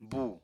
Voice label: "bù", apatani

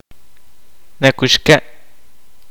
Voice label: To sing a lullaby to lull a baby, Kaqchikel; to sleep

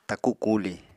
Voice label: "taku kuli", Apatani

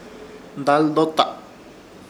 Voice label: "Daldota", Ishir Chamacoco; andar de atras

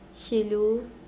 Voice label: "three", Mokilese

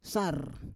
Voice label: "sar", Roon

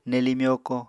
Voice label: "nelii myokó", Apatani

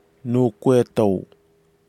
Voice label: "noukwetao", Nafe (Kwamera); plants

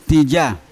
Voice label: "tija" knife, Yawa

